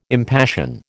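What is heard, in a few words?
"impassion", American English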